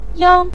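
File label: "yang" neutral tone, Mandarin Chinese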